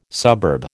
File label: "suburb", American